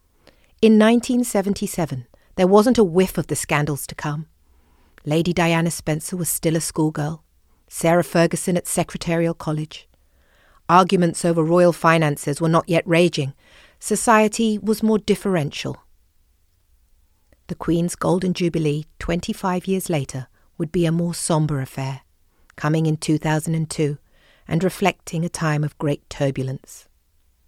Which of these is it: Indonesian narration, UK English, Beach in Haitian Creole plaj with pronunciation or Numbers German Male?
UK English